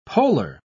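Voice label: "poler", British English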